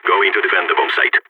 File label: going to defend bombsite, balkan